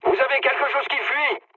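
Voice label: French tank damaged mood low; War Thunder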